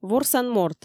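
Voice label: "ворсан морт", Komi, female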